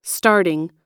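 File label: "starting", American English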